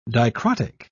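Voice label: "dicrotic", British English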